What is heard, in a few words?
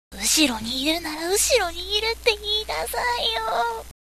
girl